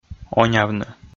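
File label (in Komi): "онявны", Komi, male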